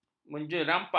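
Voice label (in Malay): "menjerempak", Malay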